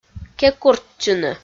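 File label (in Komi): "кекуртчыны", Komi, female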